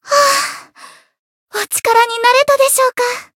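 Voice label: win, Japanese; yuna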